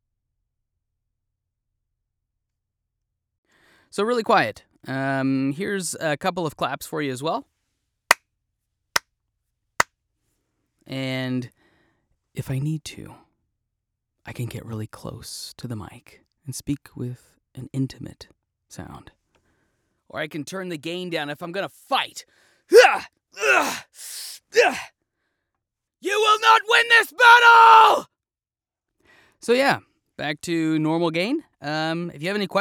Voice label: Raw Studio